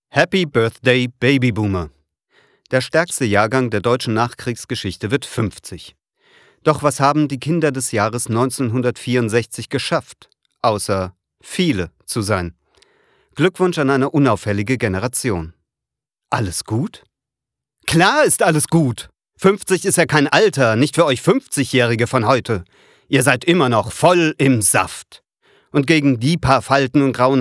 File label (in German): Kommentar; Demo Kommentar Baby-Boomer